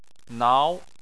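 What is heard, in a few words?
"nao", Chinese, second tone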